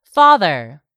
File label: "father", English; 3000 words